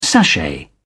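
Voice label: "sashay", British English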